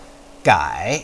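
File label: "gai", Mandarin Chinese, third tone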